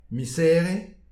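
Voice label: "miz here", Breton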